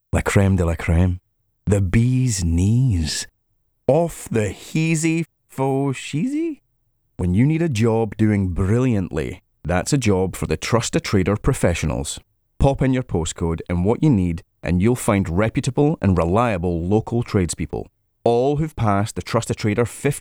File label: radio advert; trustatrader